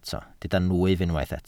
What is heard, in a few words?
"nwyf", welsh